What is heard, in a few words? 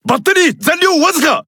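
Japanese Speech Alarms Sub Battery Low; World Of Warships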